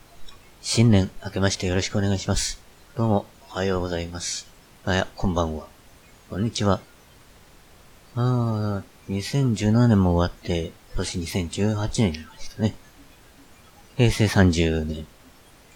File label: normal Voice